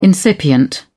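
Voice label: "incipient", British English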